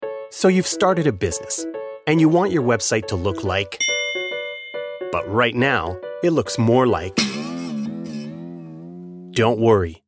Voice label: WEB HELP ENGLISH

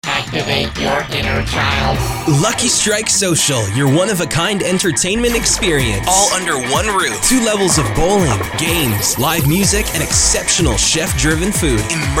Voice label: Happy Hour Tag Mix; Lucky Strike Social

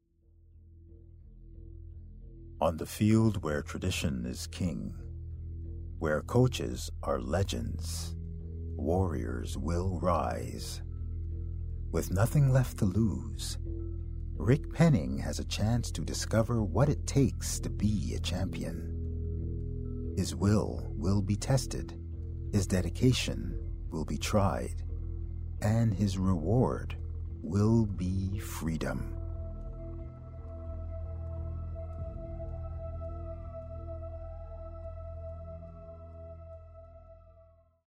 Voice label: Movie Trailer sport VO

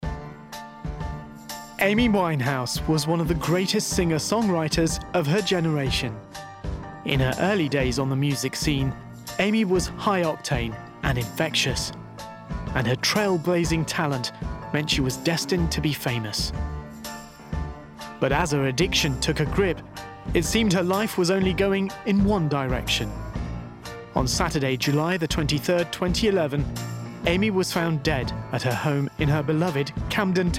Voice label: Documentary

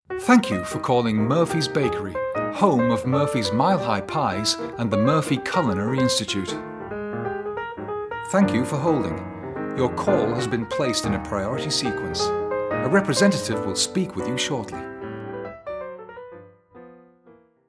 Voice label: VO Phone Message

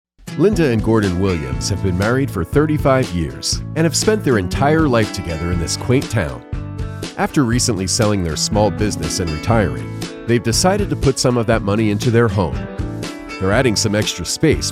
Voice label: Reality TV Documentary Narration

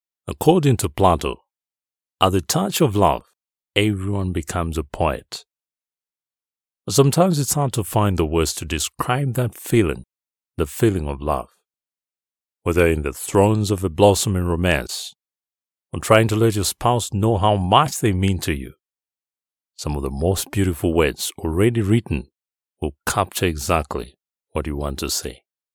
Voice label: Poetic

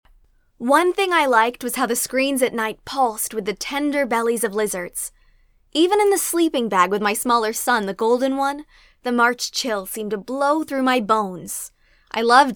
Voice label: Audiobook sample

